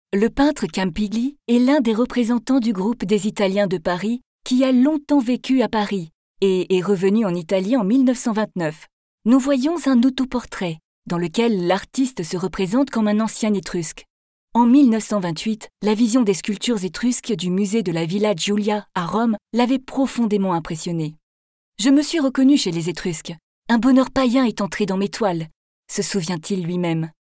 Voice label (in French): VO Audio guide Hall, voix off; Villa Necchi Campiglio